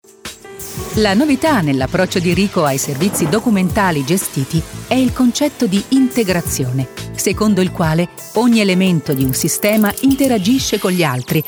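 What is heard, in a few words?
Italian corporate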